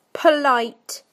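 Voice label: "polite", British English; PictureDictionary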